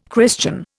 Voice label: "Christian", Indian